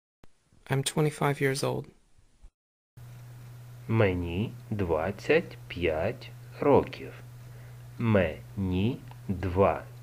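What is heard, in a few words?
Ukrainian I'm 25 years old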